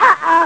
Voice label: uh oh

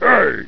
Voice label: HEY! LOW

